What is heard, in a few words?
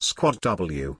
"squadw" pronunciation